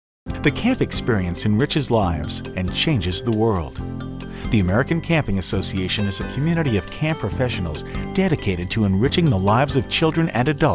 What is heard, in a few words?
Narration